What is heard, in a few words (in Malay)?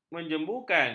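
"menjemukan", Malay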